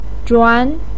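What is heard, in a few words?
"zhuan" second tone, Mandarin Chinese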